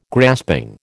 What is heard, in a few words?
"grasping", American